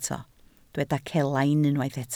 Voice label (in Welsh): "celain", Welsh